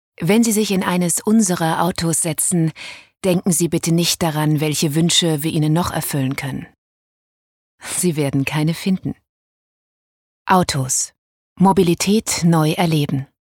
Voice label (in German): Werbung Freundlich